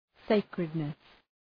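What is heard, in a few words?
"sacredness", English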